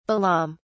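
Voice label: "balaam", British English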